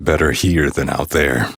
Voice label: Citizen Male, en; Citizens; Warframe; Mall